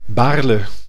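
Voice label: "Baarle", Dutch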